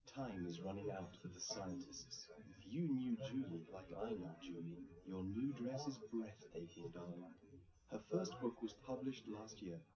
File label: male, live rec; SiSEC11; underdetermined